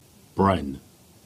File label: "Brynn" Cornish; Bren 1244 (Gover, 1948)